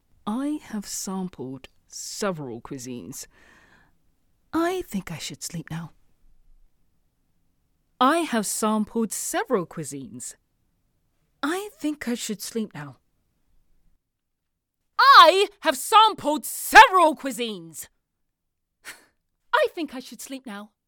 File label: Home Studio Read